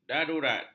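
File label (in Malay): "darurat", Malay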